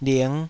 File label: "ling5", Taiwanese syllable